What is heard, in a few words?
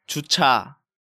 "Jucha", Korean